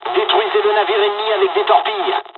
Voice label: torpedo attack, dialogs french; War Thunder